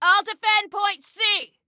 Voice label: defend, Female

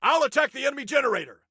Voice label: Self Attack Generator, Soldier Male; Soldier Male 02

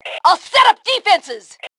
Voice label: Defenses, Female

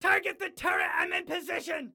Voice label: Target Turret, Excited Guy

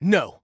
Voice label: No, Soldier Male

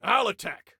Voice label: Self Attack Attack, Soldier Male; Soldier Male 02

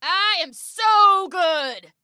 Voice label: Taunt Brag, Female

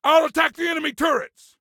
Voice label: Self Attack Turrets, Soldier Male; Soldier Male 03

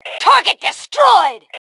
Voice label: Target Destroyed, Female

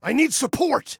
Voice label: Need Support, Male

